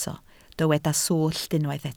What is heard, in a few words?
"swllt", Welsh